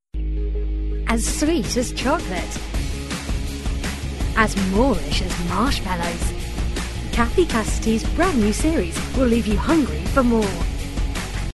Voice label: teen, voice